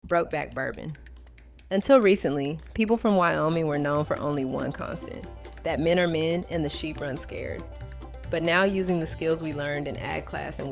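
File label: w music, Commercials; broke back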